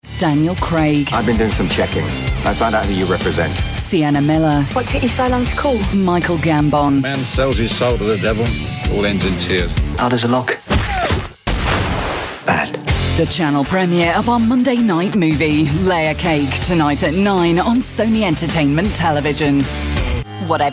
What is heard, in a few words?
tv promos